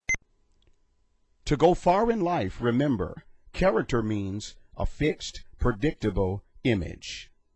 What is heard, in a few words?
Character